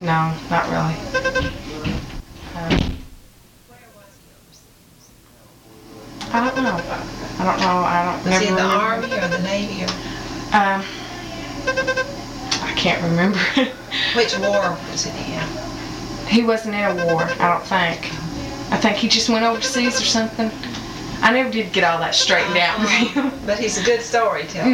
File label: Conversation with 16 year old white female Arkansas; loc american english dialect recordings